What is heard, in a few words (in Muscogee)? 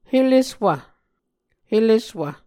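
"heleswv", Muscogee word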